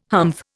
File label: "humph", Indian